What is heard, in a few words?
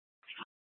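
police dispatch, Scottsdale PD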